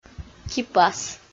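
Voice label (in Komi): "кипас", Komi, female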